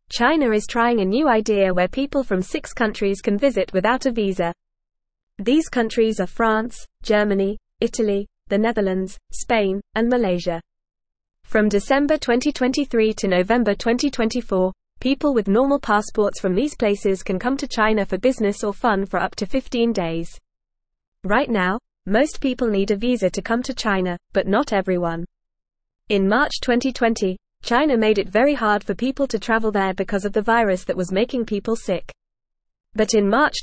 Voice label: English Lower Intermediate FAST Reading